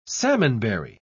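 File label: "salmonberry", British English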